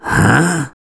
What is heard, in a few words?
"What", Male; gangsters